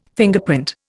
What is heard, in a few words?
"Fingerprint", Indian